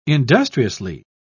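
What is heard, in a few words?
"industriously", British English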